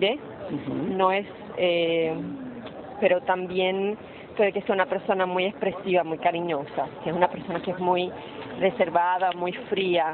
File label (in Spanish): Puerto Rico Fem Politenes